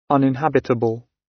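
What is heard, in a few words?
"uninhabitable", British; Space, the planets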